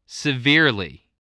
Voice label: "severely", spelling